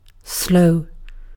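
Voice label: "slow", British English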